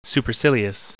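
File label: "supercilious", English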